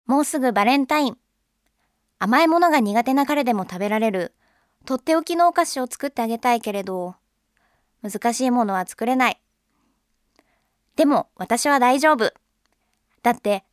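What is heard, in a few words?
Narration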